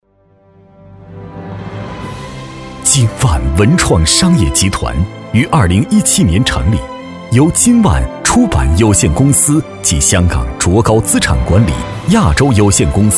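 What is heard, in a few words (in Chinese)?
男【专题】企业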